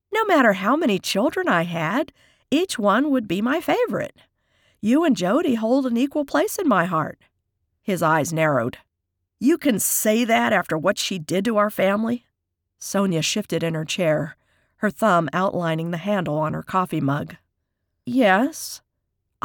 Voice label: Christmas Homecoming Dialogue

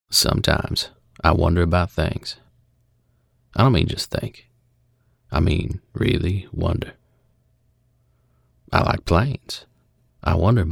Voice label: Celeb Impression

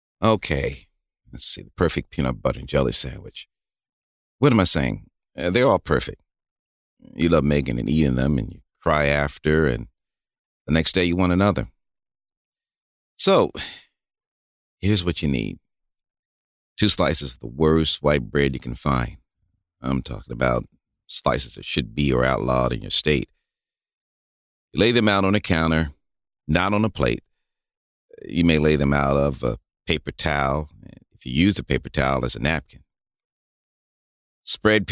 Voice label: Audiobook, Male Voice; English (British)

